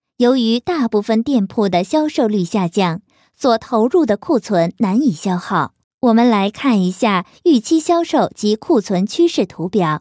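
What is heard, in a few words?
CN F elearning, Chinese, female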